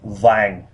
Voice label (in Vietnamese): "yellow", Vietnamese